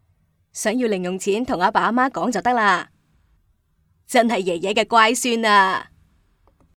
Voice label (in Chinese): Y女 粤语妈妈奶奶